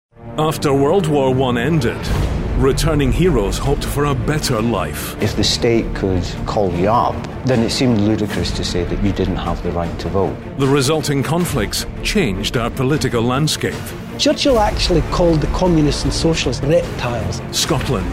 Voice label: tv and radio trailers

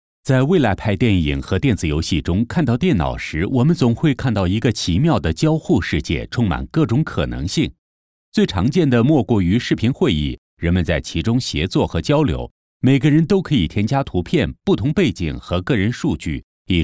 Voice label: chinese mandarin corporate

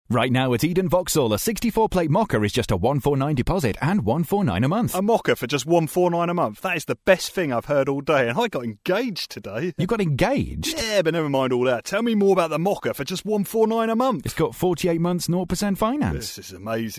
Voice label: conversational

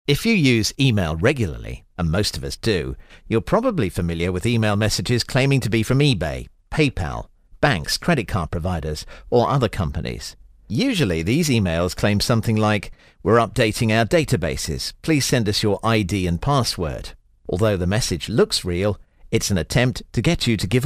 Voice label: factual

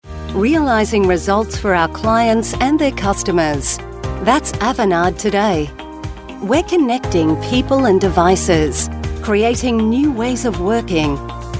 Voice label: australian female voice, corporate

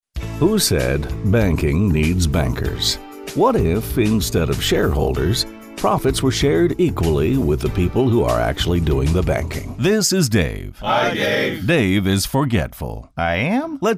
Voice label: american commercial

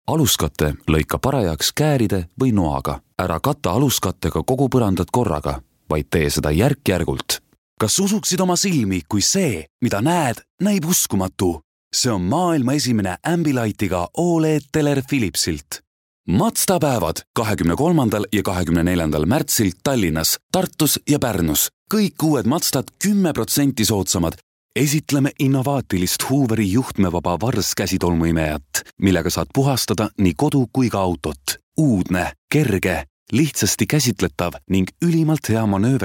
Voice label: estonian male, corporate

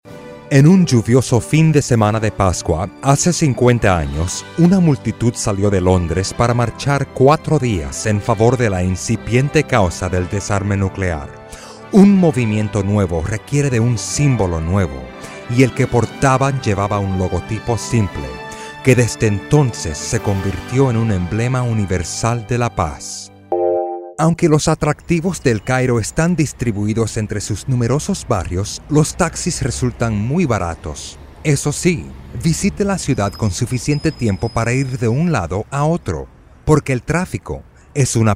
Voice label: documentaries

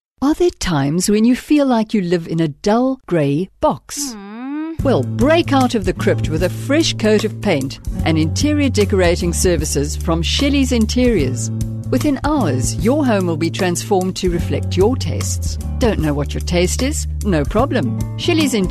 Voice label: radio spot

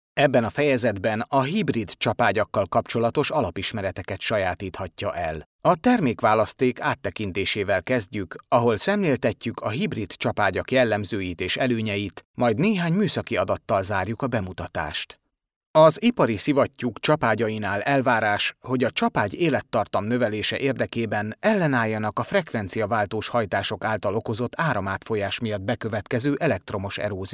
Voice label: hungarian male elearning

